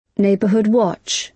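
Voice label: "neighbourhood watch", British English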